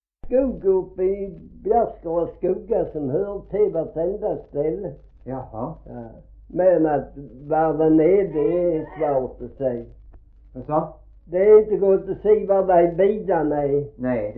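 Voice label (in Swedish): Gråmanstorp Skåne, dialekter; Folkminnen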